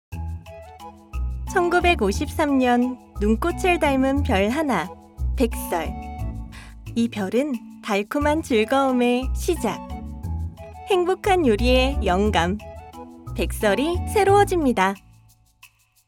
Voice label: 밝은; 백설

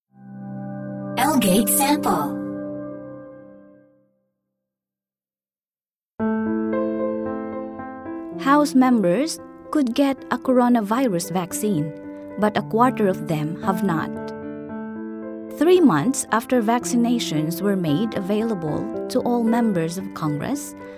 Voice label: 필리핀 여 영어 톤

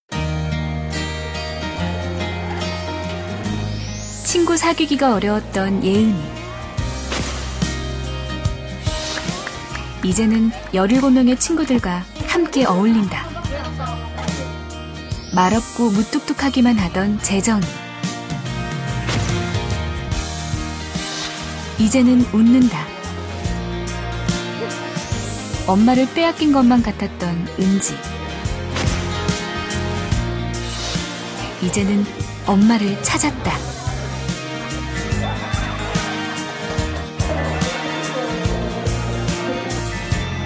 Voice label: NA EBS 다큐

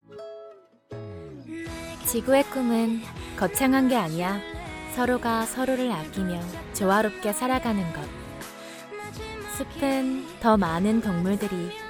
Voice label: 친절한 톤; 몽베스트

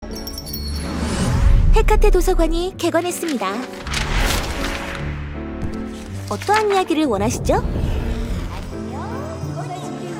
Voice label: 소녀 10대; 데스티니차일드; 헤카테

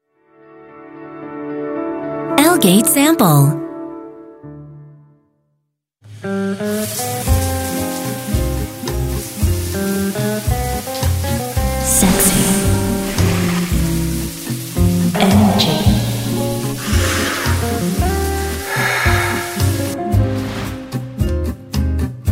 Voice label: 영어 여 밝은톤; 보렐샤워기

